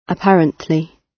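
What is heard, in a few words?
"apparently", British English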